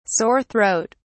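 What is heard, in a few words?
"sore throat", British English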